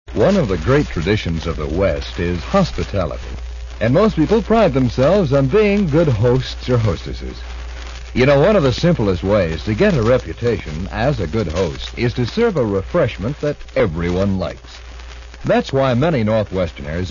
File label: Commercial Rainier Beer; KHQ Spokane